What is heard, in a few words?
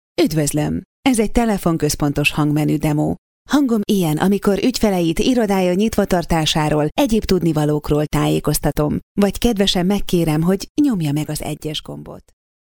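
Hungarian, female, IVR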